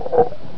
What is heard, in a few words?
die, combine soldier, far; hl2